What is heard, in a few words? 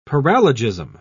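"paralogism", British English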